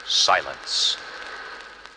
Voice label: silence announcer